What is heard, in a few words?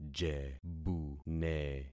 "jebune", concat syllables; Affixing experiment